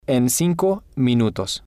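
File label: "en Cinco Minutos", Spanish, male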